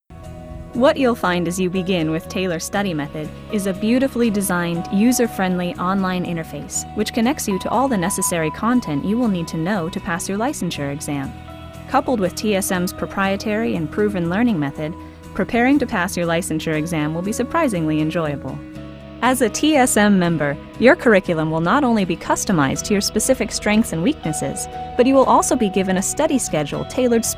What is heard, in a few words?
women narration; Savvy, natural, fearless, & fun. Girl next door-cool mom. Approachable and professional